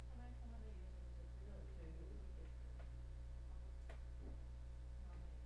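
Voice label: "bicycle", spoken word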